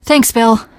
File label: thanks, teen girl